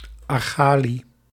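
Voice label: "argali", Dutch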